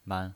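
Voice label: "man", pinyin voice, Mandarin Chinese, neutral tone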